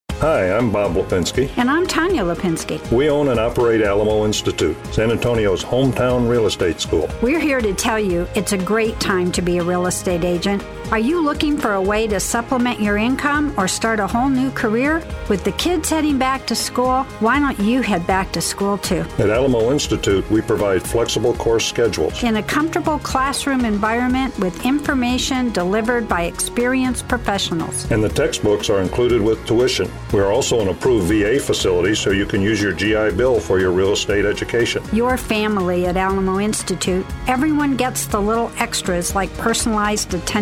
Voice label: AI radio spot